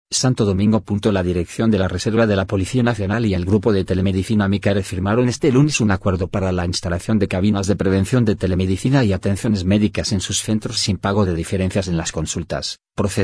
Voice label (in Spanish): voz del narrador; reserva policial firma convenio de salud con grupo medico mycare